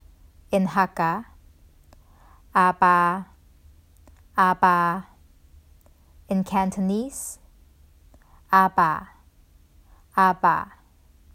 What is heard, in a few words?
"Ah Ba 阿爸", Hakka, Cantonese; The Duke Who Didn’t: Glossary & Pronunciation Guide